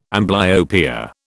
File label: "amblyopia", British